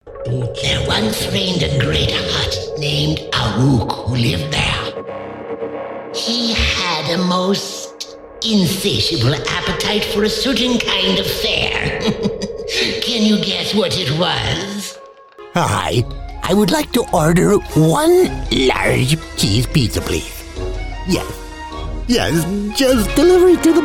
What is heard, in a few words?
Character Demo